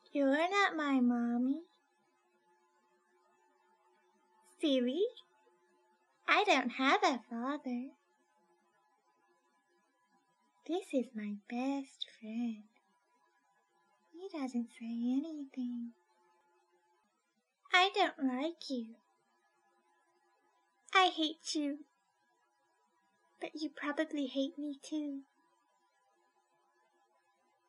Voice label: Child Babble Random Creepy Bratty